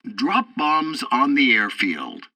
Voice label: bomber drop bombs, english; War Thunder